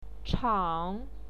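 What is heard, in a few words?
"chang", Mandarin Chinese, third tone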